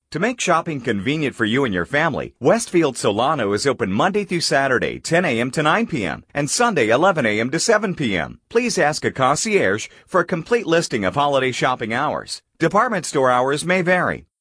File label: Male